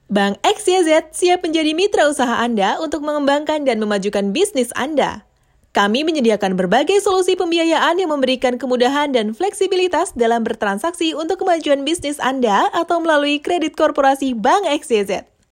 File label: Formal Indonesia